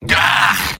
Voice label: negative vocalization; Medic; mvm